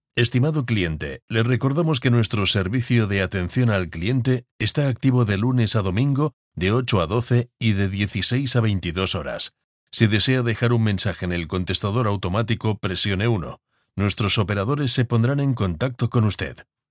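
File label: spanish interactive voice response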